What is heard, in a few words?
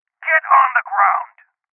Voices Order To Surrender Male